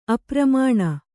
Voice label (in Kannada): "ಅಪ್ರಮಾಣ", Kannada